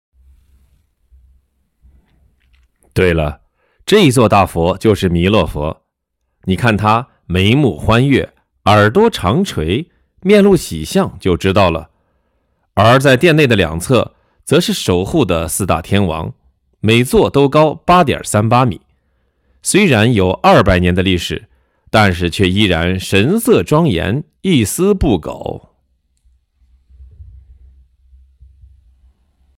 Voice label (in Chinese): 导游词配音